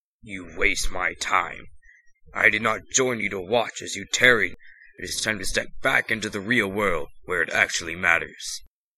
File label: Protoss Voice Sample